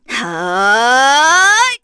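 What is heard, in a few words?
Vox Casting, Korean; Cecilia; kingsraid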